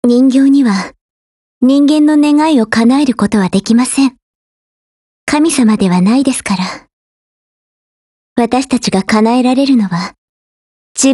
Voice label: DIALOGUE JP; M82A1

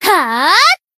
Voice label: Battle Shout; Asuna

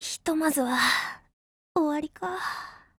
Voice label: BREAK, JP; CZ100

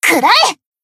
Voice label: Battle Shout; Iori; Swimsuit

